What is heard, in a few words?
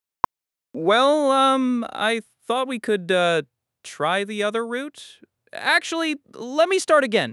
hesitation um uh